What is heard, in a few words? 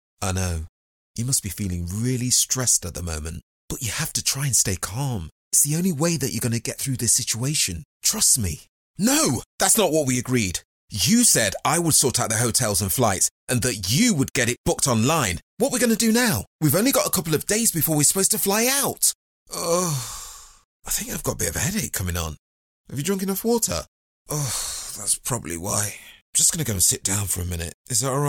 Conversational